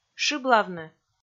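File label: "шыблавны", Komi, female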